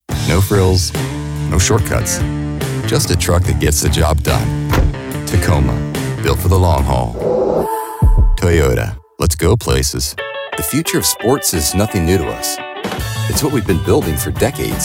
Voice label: VO Commercial